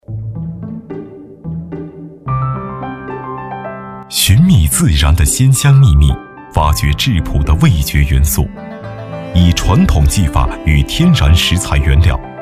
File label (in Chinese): 纪录片 美食配音, 男国; 舌尖